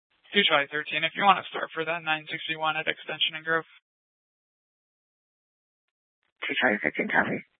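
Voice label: police dispatch radio, scanner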